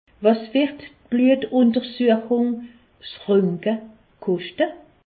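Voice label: "koschte", Haut Rhin; medecine; que va coûter lexamen biologique la radio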